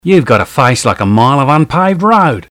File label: aussie